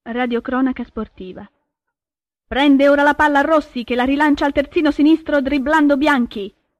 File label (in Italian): radiocronaca sportiva